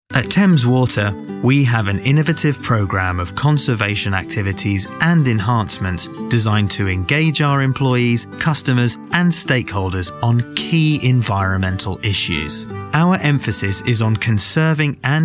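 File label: Corporate Sample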